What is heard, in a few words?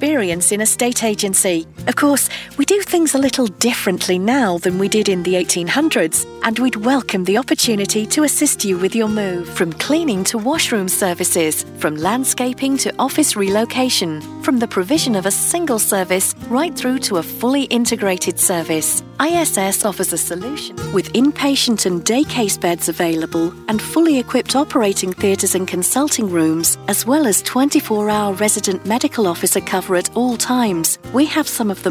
On Hold voices; Voice-over demos